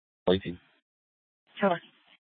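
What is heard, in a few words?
Police Department North Dispatch, scanner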